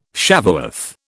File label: "Shavuoth", British